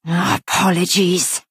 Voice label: Nord female sorry